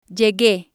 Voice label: "llegue", spanish

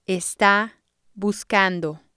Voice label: "esta buscando", spanish

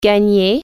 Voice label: win, french

